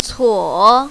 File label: "cuo3", mandarin, female; 脞; cóng cuǒ; 叢; цо3